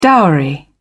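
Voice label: "dowry", British English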